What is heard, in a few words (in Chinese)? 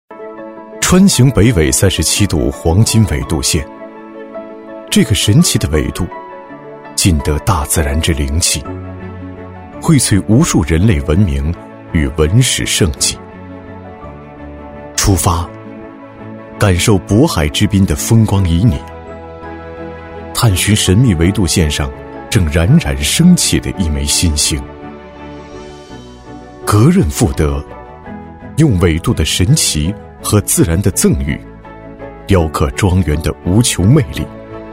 男 品牌宣传 诗意情怀; 男189-大气主流 高端大气; 格润富德形象宣传片